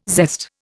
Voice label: "zest", Indian